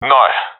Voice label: negative, professional; vo; Counter Strike Model Pack; CS:GO